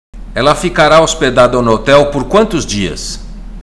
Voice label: Portuguese Listening; Portuguese Test